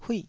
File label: "huih4", taiwanese syllable